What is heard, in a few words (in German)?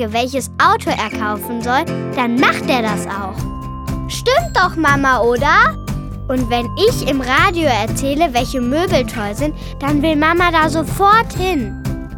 Werbung Kinderstimmen